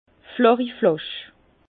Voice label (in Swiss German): "flachiflasch", Bas Rhin; vin; flasque